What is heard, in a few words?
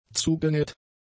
"zugenäht", German; adjectives